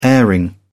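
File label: "airing", British English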